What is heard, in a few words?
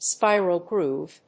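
"spiral groove", English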